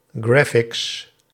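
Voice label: "graphics", Dutch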